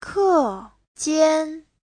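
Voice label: "kèjiān", Mandarin Chinese; 课间 : giữa giờ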